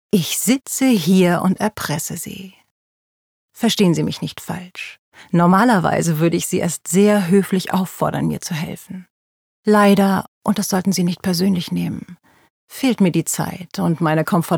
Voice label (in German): Hoerspiel